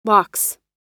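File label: "locks", American English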